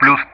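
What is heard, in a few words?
"plus", Russian; Common Player; DCS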